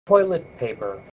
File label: "toilet paper", voice